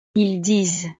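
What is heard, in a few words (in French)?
"ils disent", French; Ellos dicen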